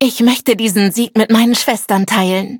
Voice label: victory, de; cookierunwiki